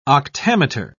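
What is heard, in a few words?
"octameter", English, British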